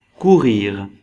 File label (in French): "courir", français